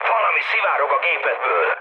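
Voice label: tank damaged, mood med, Hungarian; speech; War Thunder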